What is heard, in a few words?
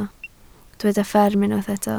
"fferm", Welsh